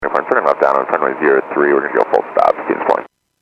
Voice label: Airports 122.7, Radio; KSTE